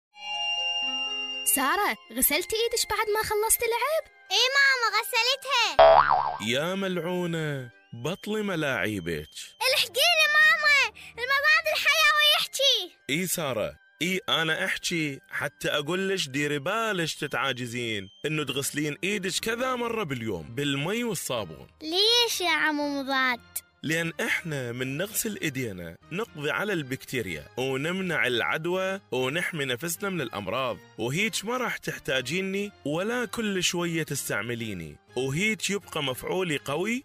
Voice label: AMR Radio Spot, Iraqi